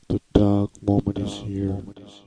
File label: dark moment is here, vocal or voxy loops